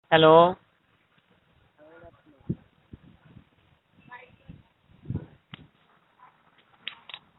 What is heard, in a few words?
Call recording